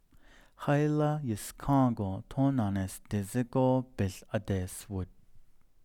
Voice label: Who is driving to Tuba city tomorrow Who will, Navajo; Future (F)